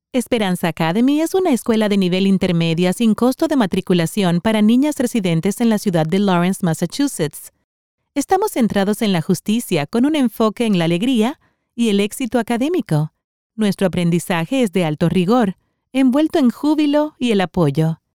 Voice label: spanish latin american corporate videos; espagnole neutre - latine

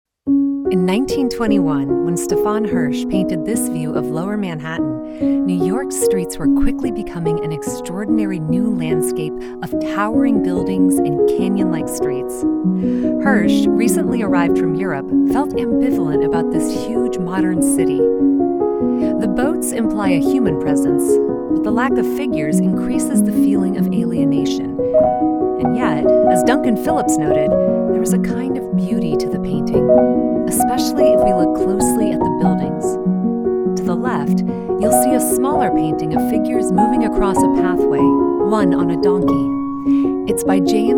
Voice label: english american audio guides

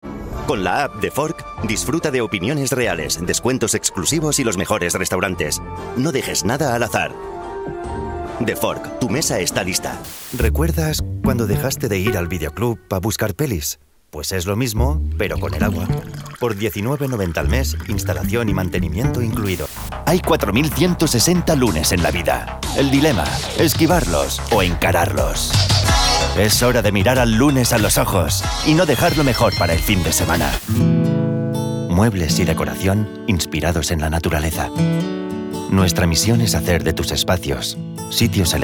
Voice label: spanish commercial; talento profissional de locução em espanhol da Espanha e catalão

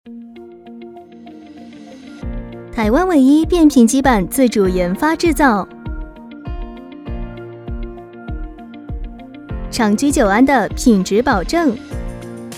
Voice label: Chinese Mandarin promos; voice actor

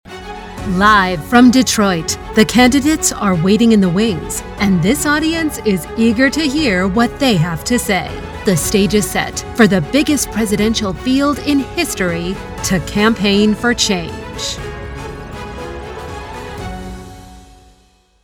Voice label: english american promos

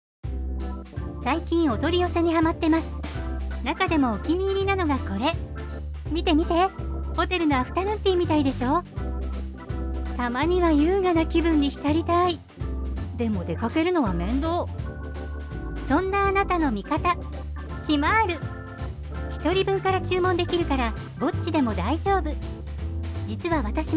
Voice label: Japanese promos

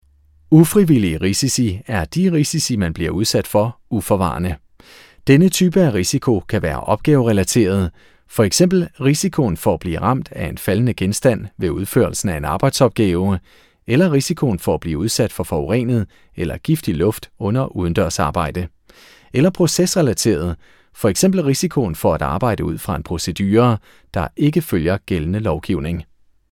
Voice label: Danish e-learning

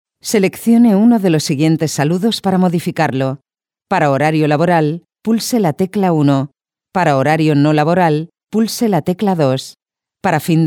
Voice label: spanish ivr

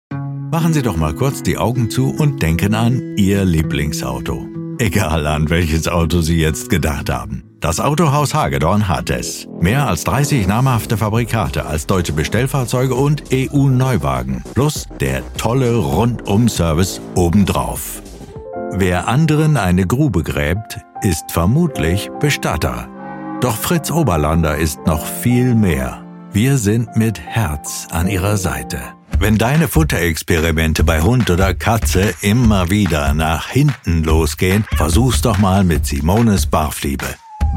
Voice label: german commercial; Allemand; Allemagne

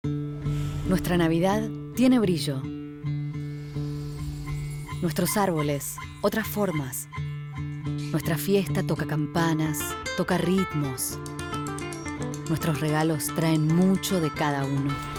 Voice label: spanish argentinian

